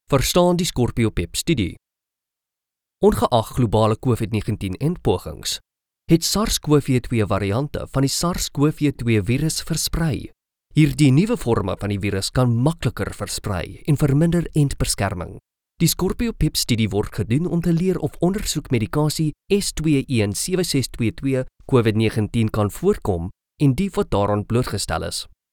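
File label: Afrikaans, male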